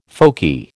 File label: "folky", American